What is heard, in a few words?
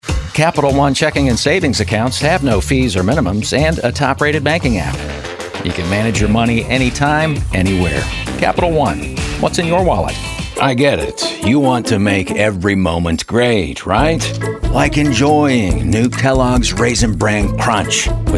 Commercial